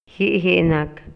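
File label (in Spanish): Maya, Yucatán, male; INALI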